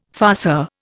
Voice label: "farceur", Indian